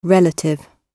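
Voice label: "relative" British English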